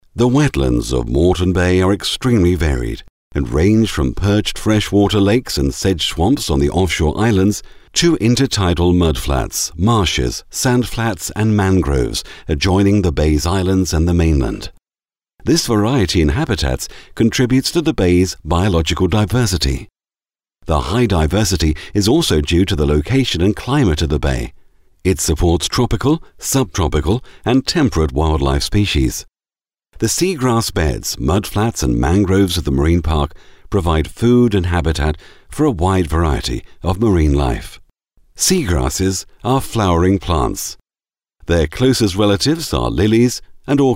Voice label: Corporate, UK; Voice-over demos